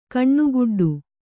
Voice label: "ಕಣ್ಣುಗುಡ್ಡೆ", Kannada

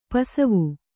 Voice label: "ಪಸಿವು", Kannada

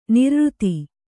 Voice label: "ನೈರ್ಋತ", Kannada

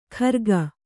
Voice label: "ಖರ್ಗ", Kannada

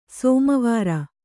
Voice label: "ಸೋಮವಾರ", Kannada; sōma vāra; Noun

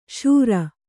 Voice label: "ಶಾರ", Kannada

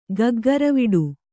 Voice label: "ಗಗ್ಗರವಿಡು", Kannada

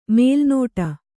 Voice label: "ಮಲೆನಾಡು", Kannada

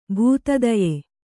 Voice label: "ಭೂತದಯ", kannada

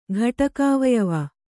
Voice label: "ಘಟಕಾವಯವ", Kannada

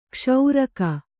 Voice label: "ಕ್ಷೀರಕ", Kannada

